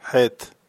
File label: "chet", hebrew